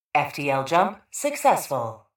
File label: PA System Successful; FTL